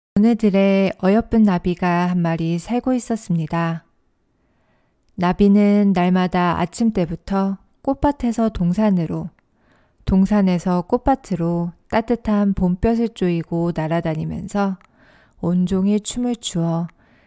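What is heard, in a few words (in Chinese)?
韩语女_外语_小语种_样音_成熟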